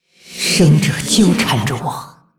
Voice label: pissed, zh